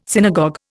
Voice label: "synagogue", Indian